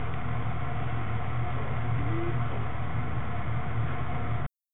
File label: Male Voice; jersey city